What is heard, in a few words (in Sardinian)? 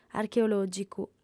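"archeològicu", female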